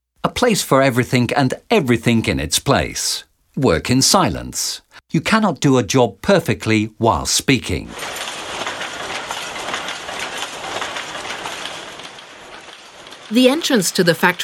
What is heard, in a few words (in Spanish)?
audioguia; fabrica textil engl